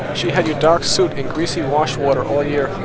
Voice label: Noisy Speech Babble Noise